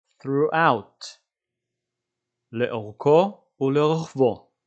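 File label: "throughout" English, "לאורכו ולרוחבו" Hebrew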